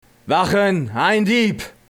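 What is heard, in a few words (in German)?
Dieb dunkel; Wachen! ein Dieb!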